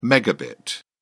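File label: "megabit", English, British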